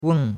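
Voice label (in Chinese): "weng4" Chinese; Cours de Chinois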